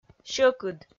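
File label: "сьӧкыд", Komi, female; kpv audio dict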